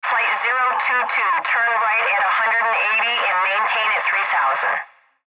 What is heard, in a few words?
ATC Voice Clip, Air Traffic Control Male Flight 022 Turn Right At 180 and Maintain At 3,000